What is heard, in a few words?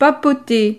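"papoter", French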